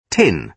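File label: "tin", British English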